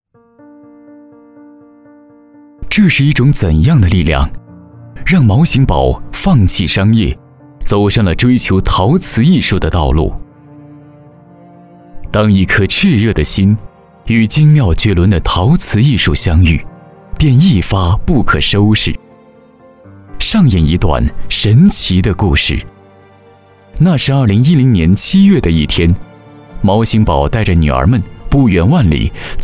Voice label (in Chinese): 男 人物解说